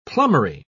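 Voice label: "plumbery", British English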